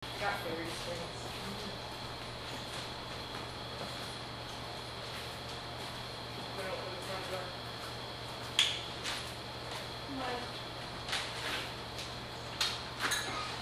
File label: Long Multi EVP